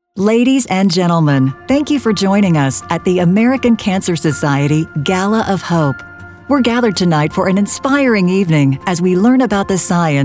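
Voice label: live announce demo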